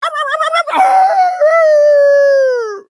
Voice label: levelup, fr; cookie run